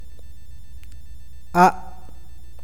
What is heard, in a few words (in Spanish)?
"agua", awakateko